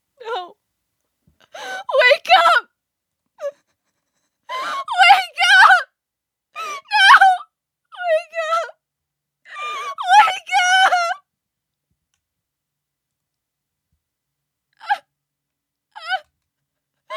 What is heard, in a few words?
Female Horror Cries No Wake Up Wake Up